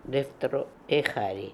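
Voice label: "Defteroehary", pronunciations; Fourth Way; Beelzebub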